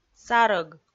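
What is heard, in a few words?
"сарӧг", Komi, female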